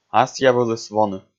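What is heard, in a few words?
"асъявылыс воны", Komi, male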